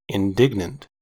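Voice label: "indignant", US English; pronunciations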